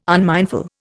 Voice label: "unmindful", Indian

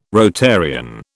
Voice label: "Rotarian", British

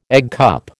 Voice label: "eggcup", American

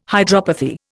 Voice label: "hydropathy", Indian

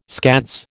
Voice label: "scads", American

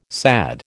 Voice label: "SAD", American

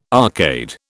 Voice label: "arcade", British

